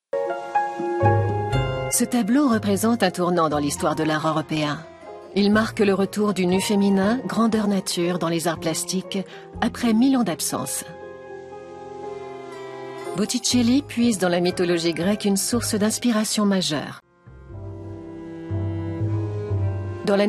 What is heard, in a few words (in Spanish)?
narración francés